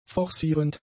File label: "forcierend", German; adjectives